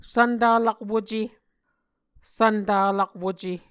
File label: "svntalakwuce", Muscogee